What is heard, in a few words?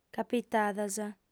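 "capitadas", female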